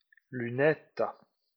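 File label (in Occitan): "lunetas", Limousin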